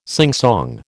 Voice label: "singsong", American